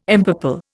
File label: "empurple", Indian